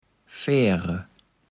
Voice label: "Färe", Platt

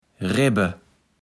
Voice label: "Rebbe", Platt

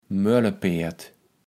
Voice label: "Möllepärd", Platt

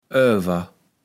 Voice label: "oawer", kaevels platt

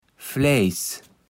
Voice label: "Fläjs", Platt

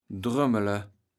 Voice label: "drömmele", kaevels platt

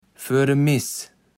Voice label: "foerde Miß", kaevels platt

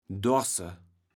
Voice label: "dorße", kaevels platt

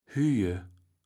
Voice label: "hüje", kaevels platt

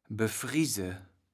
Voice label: "befriese", kaevels platt

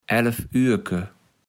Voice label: "elf Ührke", kaevels platt

